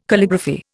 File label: "Calligraphy", Indian